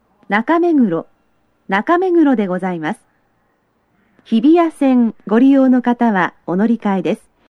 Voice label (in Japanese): 到着放送, 女声; 東急東横線; 4番線 渋谷・和光市・飯能・森林公園方面; 放送は全列車共通です。; 中目黒駅